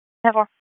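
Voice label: Dispatch, p25; WSO DISP 3; wake p25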